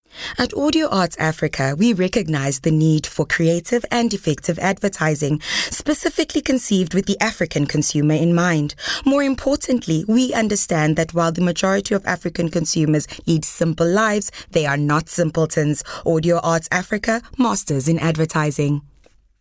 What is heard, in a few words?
English Corporate